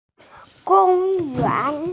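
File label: "gong1yuan2", Mandarin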